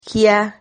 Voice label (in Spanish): "gia", Silabas